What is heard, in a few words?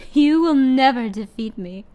You Will Never Defeat Me, human female evil; customnpcs